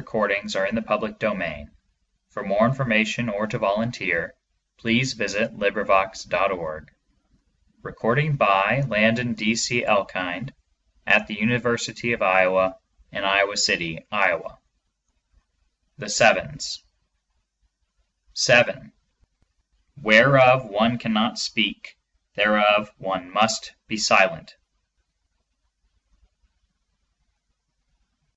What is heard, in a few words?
Tractatus logico-philosophicus audiobook; Wittgenstein; Proposition 7; English